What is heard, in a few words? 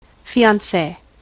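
"fiance", American English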